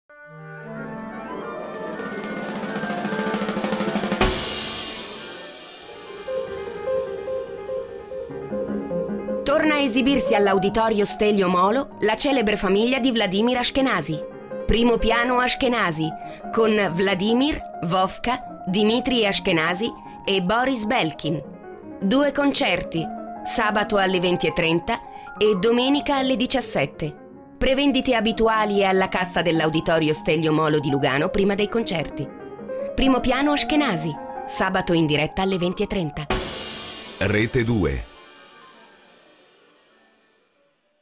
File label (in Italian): Promo Radio